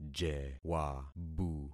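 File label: "jewabu", concatenated syllables; Affixing experiment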